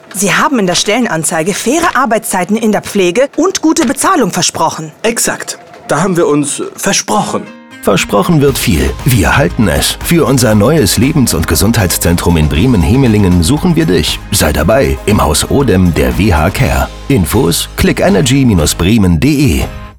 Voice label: Radio Spot; WH Care Hemelingen